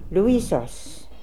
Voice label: "Looisos", pronounciations; Fourth Way; Beelzebub